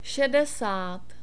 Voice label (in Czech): "sedesat", Czech; numbers